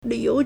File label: "lv3you2", Chinese